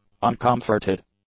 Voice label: "uncomforted", English; adjectives

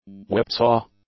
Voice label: "whipsaw", English

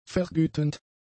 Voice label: "vergütend", German; adjectives

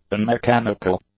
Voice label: "unmechanical", English; adjectives

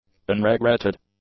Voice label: "unregretted", english; adjectives

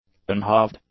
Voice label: "unhalved", english; adjectives

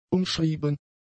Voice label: "umschrieben", German; adjectives

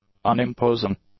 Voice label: "unimposing", english; adjectives

